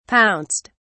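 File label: "pounced", British English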